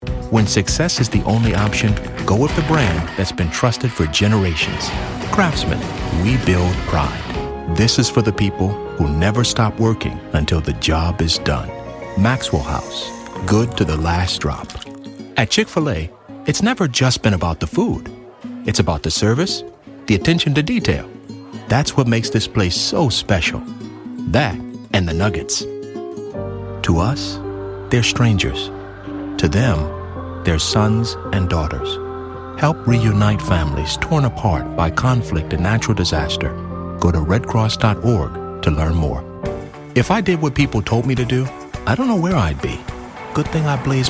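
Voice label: US English Commercial; GoLocalise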